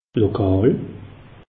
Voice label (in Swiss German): "lokal", alsace; meteo